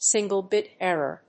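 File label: "single-bit error", English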